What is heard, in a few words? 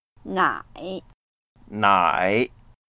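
"nai3", Mandarin Chinese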